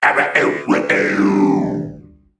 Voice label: Male Mech Death

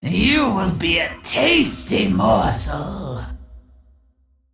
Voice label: Female Dragon Boss Idle; Onyxia

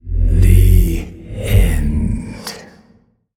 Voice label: Male Old God Creature Attack

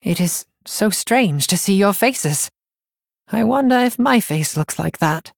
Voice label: Blood Elf, Boss Idle

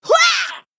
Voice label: Female Murloc Attack

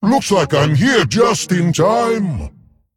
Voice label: Male Dragon

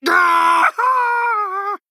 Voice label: Male Human Death

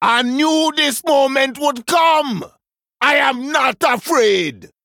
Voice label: Male Troll; Brukan

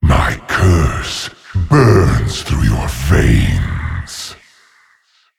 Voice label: Male Old God Creature

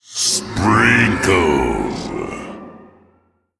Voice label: Male Elemental Attack

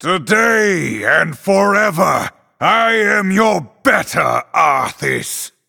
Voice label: Male Night Elf Start; Arthas

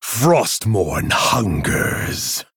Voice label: Male Human, Hero Power

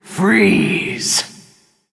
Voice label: Androgynous Faceless Attack

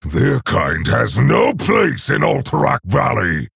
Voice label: male orc, Bark; CaptainGalvangar

